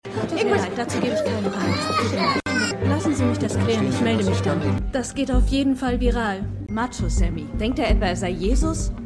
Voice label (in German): PR Frau; WeCrashed